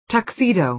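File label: "tuxedo", American English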